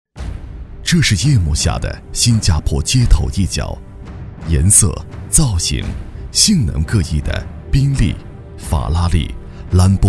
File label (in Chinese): 专题片 新加坡街头一角, 男; 小样